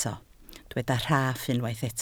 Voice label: "rhaff", Welsh

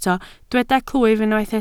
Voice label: "clwyf", Welsh

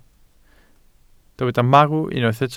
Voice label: "marw", welsh

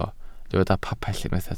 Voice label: "pabell", Welsh

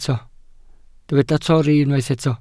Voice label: "torri", Welsh

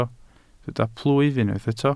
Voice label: "plwyf", Welsh

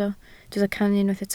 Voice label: "cannu", Welsh

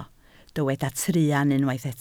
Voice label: "truan", Welsh

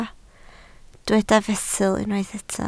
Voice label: "fesul", Welsh

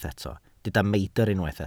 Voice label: "meidr", Welsh

